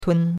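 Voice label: "tun1", Mandarin Chinese; Cours-de-Chinois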